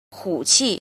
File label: "jin", Chinese